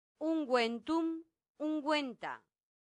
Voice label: "unguentum unguenta", latin; santillana

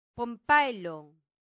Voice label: "pompaelo", latin; santillana

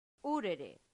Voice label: "urere", Latin; santillana

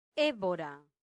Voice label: "ebora", latin; santillana

